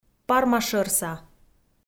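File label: "пармашӧрса", Komi, female; audio dict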